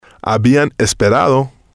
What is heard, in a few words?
"habian esperado", spanish